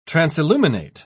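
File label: "transilluminate", British English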